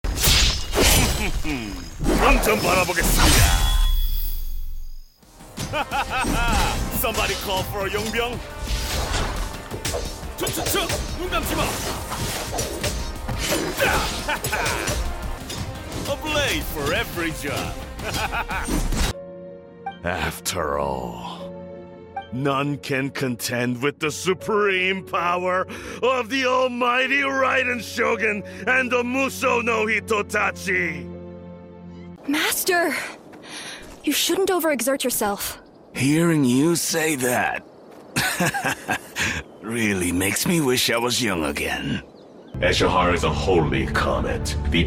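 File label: KO Video Game, Korean; GoLocalise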